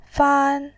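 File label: "fan", Mandarin Chinese, first tone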